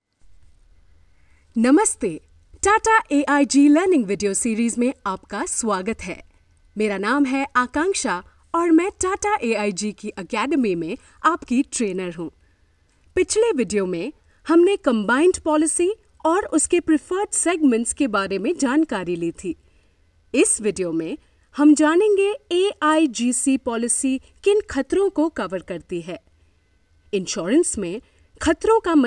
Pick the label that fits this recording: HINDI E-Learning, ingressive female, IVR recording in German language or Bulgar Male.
HINDI E-Learning